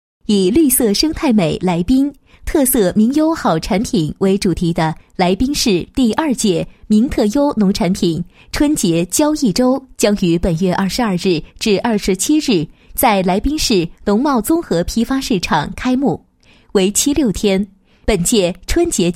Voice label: 女 宣传广告; 第二届名特优农产品春节交易周宣传

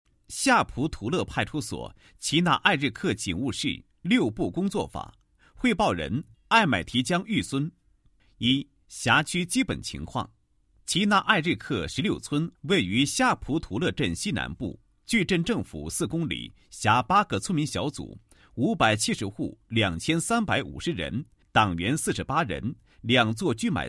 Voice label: 男 公安; 其纳艾日克警务室“六步工作法”

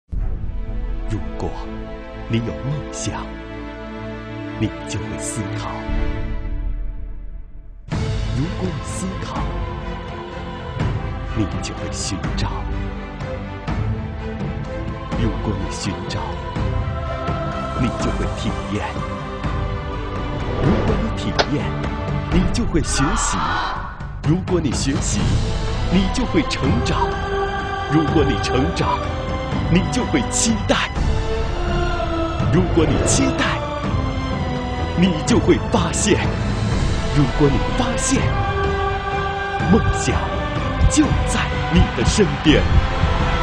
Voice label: 男声 形象片; 国语男声配音; 汉语桥

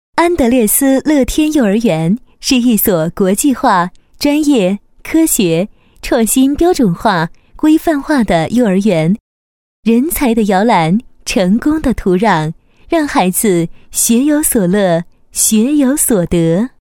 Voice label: 女 学校宣传 配音; 安德烈斯幼儿园

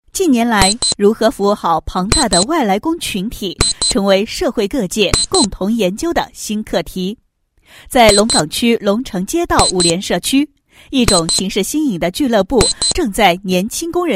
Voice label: 女 宣传广告; 俱乐部宣传

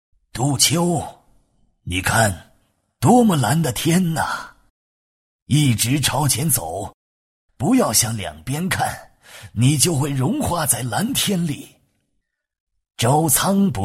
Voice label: 男 角色模仿 电影对白, 浑厚磁性; 国语男声配音

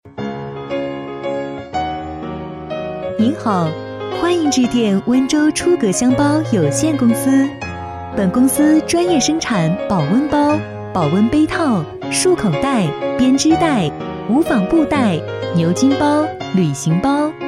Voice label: 女 彩铃 真诚自然; 多风格录音; 国语女声配音

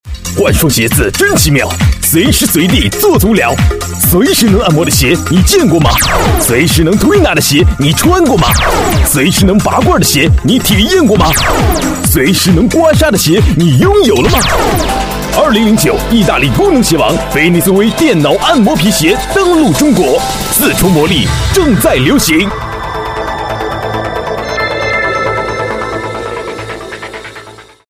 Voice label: 电购 电脑按摩鞋, 国语男声配音, 浑厚大气; 菲尼斯

